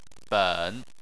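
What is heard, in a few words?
"ben" Chinese, third tone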